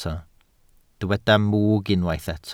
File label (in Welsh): "mwg", Welsh